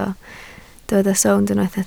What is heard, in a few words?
"sownd", Welsh